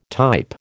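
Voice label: "type", American English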